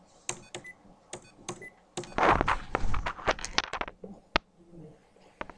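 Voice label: "singer", spoken word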